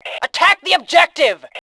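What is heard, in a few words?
"attack objective", female voice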